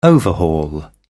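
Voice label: "overhaul", British English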